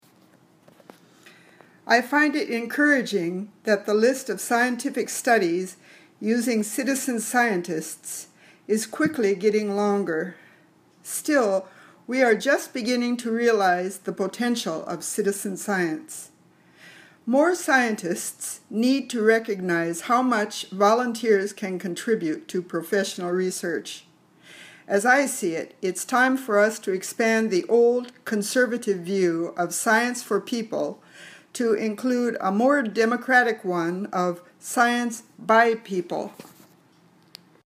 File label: Reading Drill